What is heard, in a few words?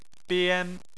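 "bian" Chinese, first tone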